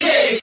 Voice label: "yay", group of men; ooh ahh